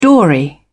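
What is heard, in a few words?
"dory", British English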